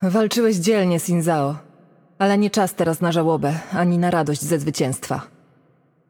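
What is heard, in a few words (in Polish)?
Game Outro, Polish; pl PL; bloom minigame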